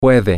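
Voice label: she can, Spanish, male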